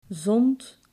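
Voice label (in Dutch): "zond", Dutch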